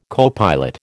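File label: "copilot", American English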